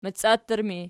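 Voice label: "maciattur" ergative, Archi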